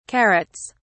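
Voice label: "carrots", English, British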